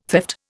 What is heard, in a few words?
"theft", Indian